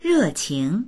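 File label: "re4qing2", Mandarin Chinese; rèqíng; 热情; 心がこもっている、親切だ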